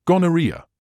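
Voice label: "gonorrhoea", British English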